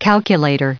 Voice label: calculator, en-us

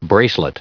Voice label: "bracelet", en-us

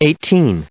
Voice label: "eighteen", en-us, recite

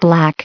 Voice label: "black", en-us

